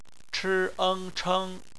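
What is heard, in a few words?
"cheng", bopomofo, chinese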